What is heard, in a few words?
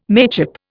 "mateship", Indian